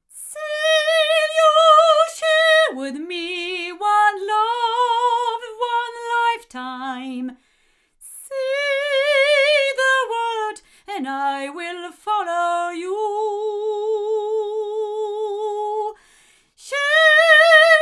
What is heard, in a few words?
Golden Age FC3 omni female